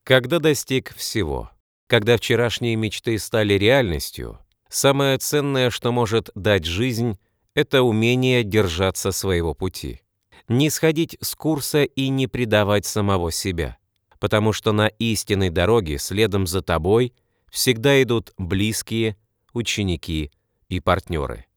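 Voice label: narration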